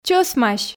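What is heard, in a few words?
"чӧсмась", Komi, female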